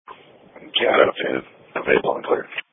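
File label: Police Patrol (Dispatch)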